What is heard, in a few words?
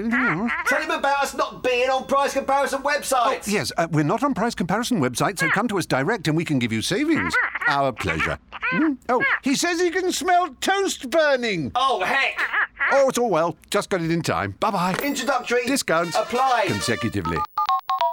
Home Phonecall radio; DIRECT LINE